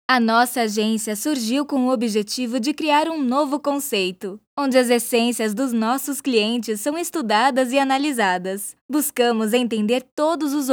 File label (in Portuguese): Institucional